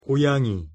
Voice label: "고양이" Korean pronunciation